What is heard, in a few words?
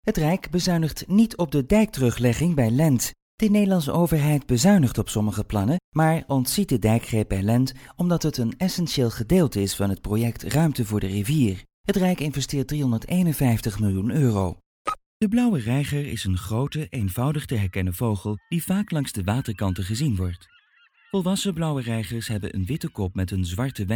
DUT F